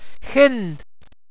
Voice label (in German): "chind", walser